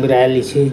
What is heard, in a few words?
"araliSu", Andamanese; finish